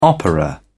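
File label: "opera", British English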